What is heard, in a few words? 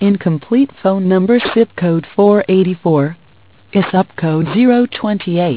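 incomplete number, English; asterisk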